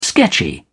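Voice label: "sketchy", British English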